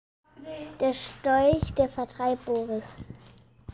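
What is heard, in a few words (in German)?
Sprachmemo Storch deutsch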